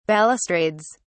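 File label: "balustrades", British English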